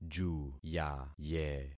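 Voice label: "juyaye", concatenated syllables; Affixing experiment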